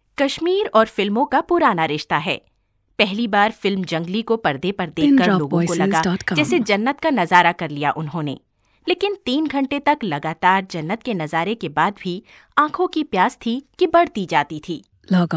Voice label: Urdu

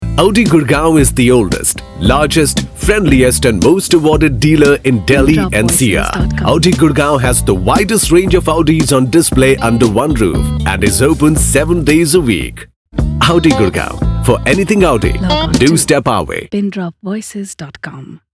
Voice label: English

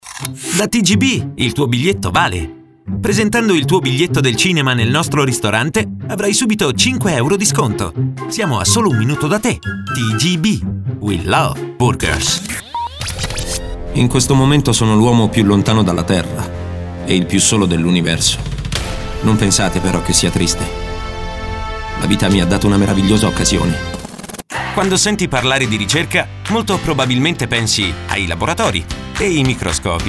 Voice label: Italian

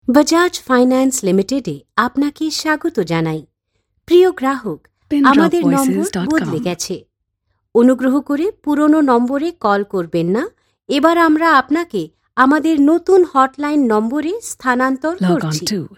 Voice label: Bengali

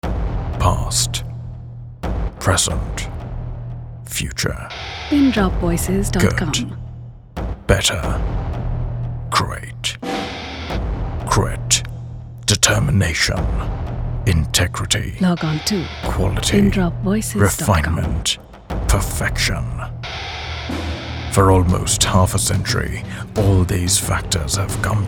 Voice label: English

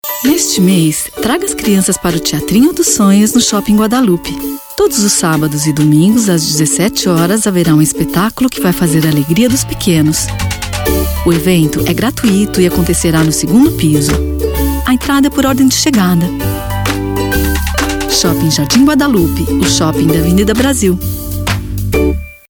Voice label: Portuguese

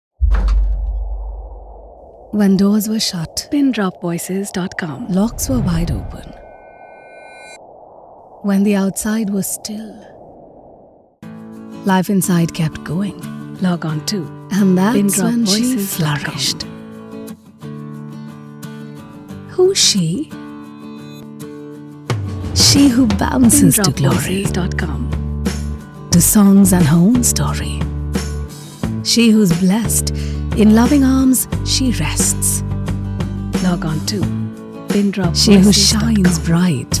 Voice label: English

